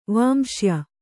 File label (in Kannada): "ವಾಂಶ್ಯ", Kannada